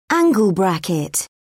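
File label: "angle bracket", British English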